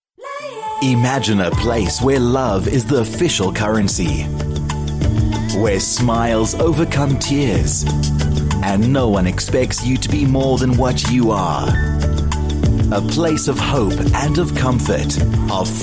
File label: english international documentaries